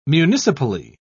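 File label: "municipally", British English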